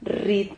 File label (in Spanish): "rrid", silabas